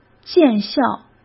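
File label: "见效", Mandarin Chinese; hsk; chinese-flashcards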